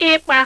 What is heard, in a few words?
igbo, vowels